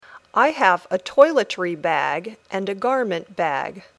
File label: Dictation